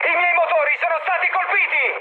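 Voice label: Italian damaged engine mood high, speech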